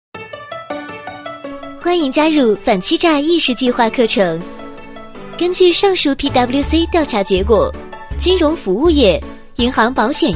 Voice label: 女 课件 可爱风格, 国语女声配音; 犯诈骗课程

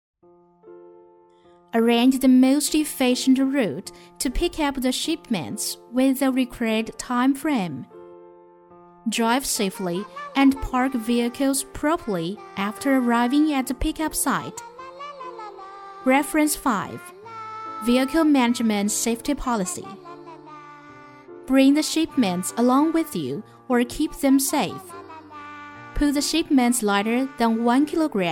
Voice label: 英女 接货流程 英语

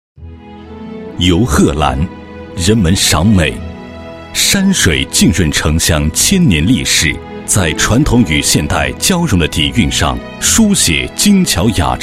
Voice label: 男 纪实; 深入推进平安建设工作

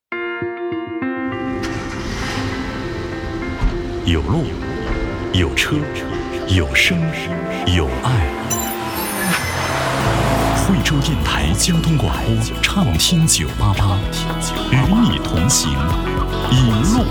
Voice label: 男, 电台, 惠州交通广播

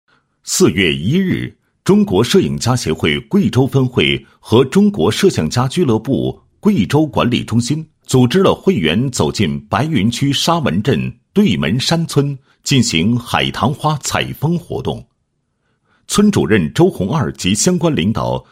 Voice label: 男 专题片; 黔中秘镜 走进白云

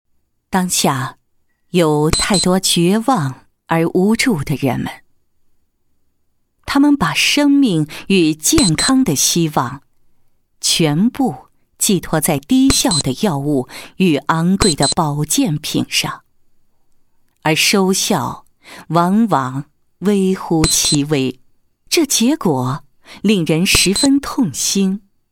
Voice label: 女, 品牌宣传 宣传配音; 美国基动力公司

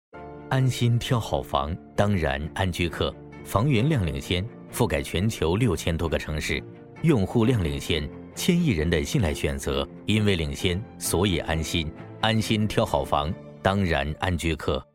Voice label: 男 沉稳大气 广告; 安居客

